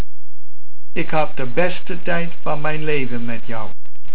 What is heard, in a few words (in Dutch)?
"Tijd", Dutch